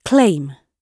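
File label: "claim", British English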